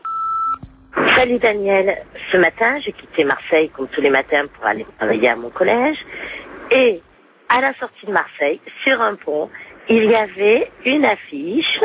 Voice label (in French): Message d amour repondeur